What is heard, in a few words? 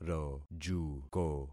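"rojuko", concatenated syllables; Affixing experiment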